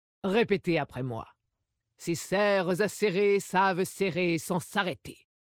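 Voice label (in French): French Female Blague; Dracthyr; Serres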